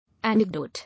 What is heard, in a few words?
"Anecdote", English